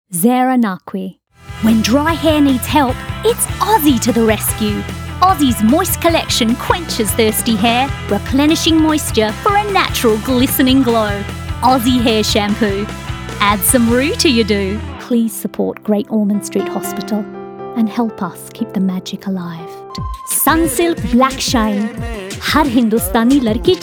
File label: Commercial voice